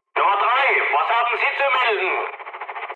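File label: German chat, speech; War Thunder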